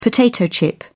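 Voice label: "potato chip", British English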